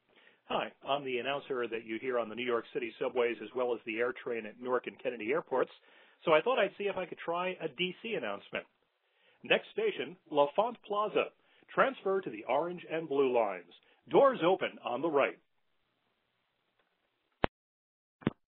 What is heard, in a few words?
Metro announcement